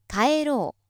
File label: female